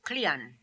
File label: "Klian", pronounciations; Fourth Way; Beelzebub